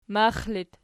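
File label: "max" locative, Archi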